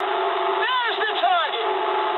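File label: English near waypoint mood high; speech; War Thunder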